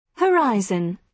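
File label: "horizon", British English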